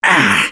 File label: Hurt Minor, russian; Gambler